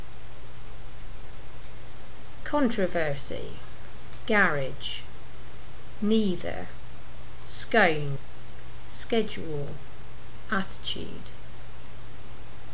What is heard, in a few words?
cornwall west country female